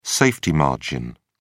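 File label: "safety margin", British English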